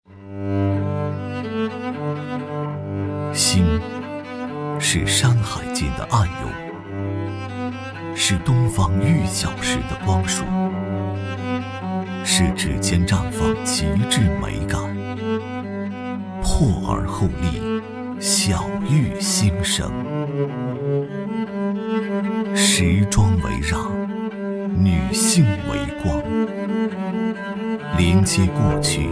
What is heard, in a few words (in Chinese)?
男, 服饰; 怦然「新」动; 格彩服饰